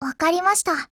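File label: PHRASE, Japanese; AKAlfa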